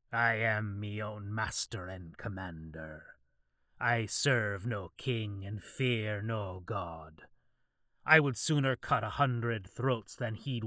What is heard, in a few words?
Comedy Pirate Dialect 1st Person